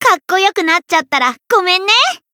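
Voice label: variable chant, Japanese; ciel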